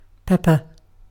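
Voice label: "pepper", British English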